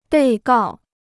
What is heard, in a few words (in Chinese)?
"被告", Chinese